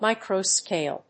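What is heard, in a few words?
"micro scale", English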